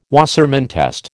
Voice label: "Wassermann test", American